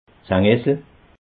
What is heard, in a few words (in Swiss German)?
"sengessel", alsace